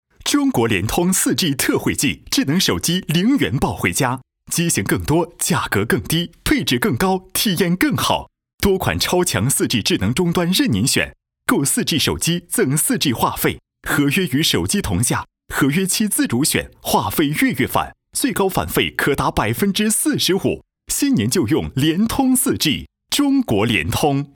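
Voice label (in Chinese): 男国大音-广告（激情活力）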